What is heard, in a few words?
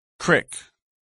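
"crick", English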